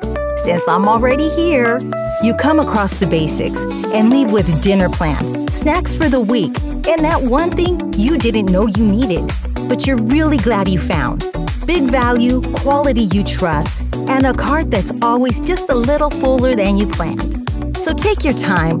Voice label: Costco Retail, Costco - Retail Conversational, Female Voice